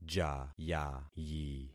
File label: "jayayi", concat syllables; Affixing experiment